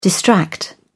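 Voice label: "distract", British English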